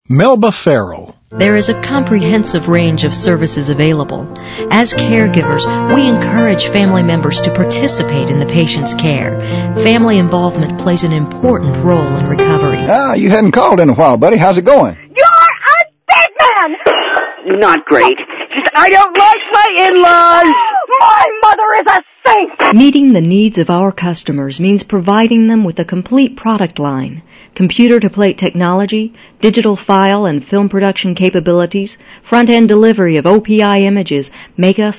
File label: female; Spotland Productions